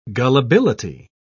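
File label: "gullibility", British English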